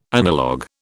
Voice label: "analogue", British